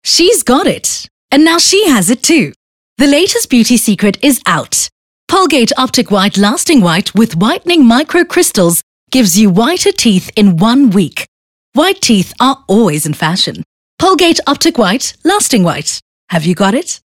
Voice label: English Bright

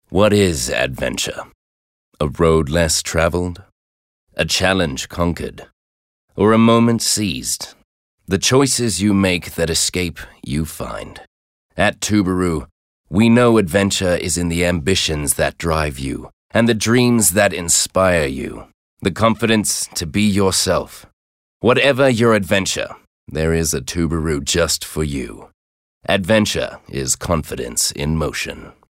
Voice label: English Softsell

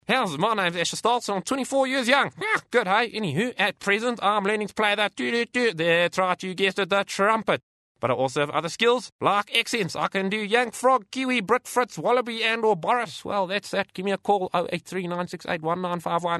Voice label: English Character Cartoon

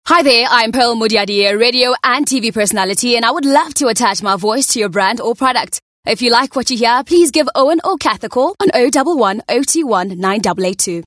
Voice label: English, conversational

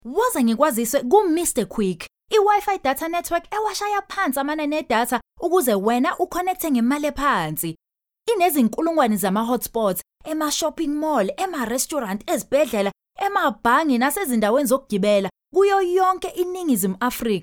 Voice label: Zulu Bright

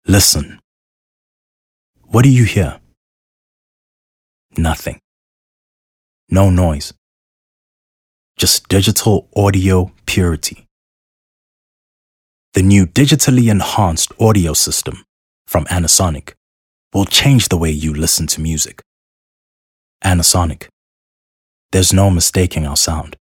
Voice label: English Soft Sell; OSM Talent

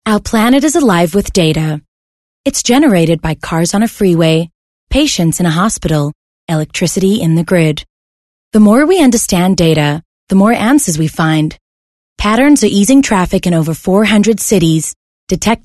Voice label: English American accent corporate

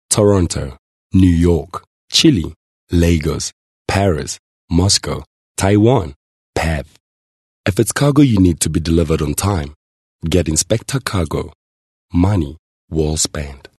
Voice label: English softsell

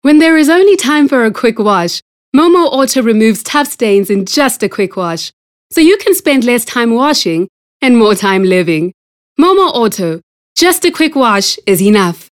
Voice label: English Bright

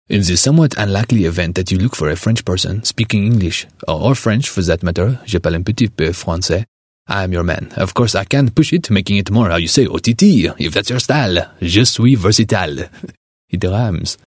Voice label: English French Accent

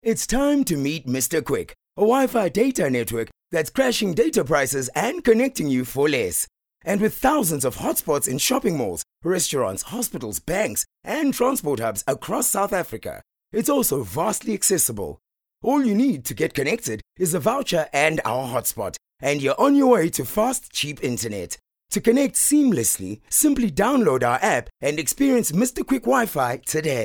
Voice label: English Hard Sell

